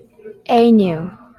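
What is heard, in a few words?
"Ainu", Japanese female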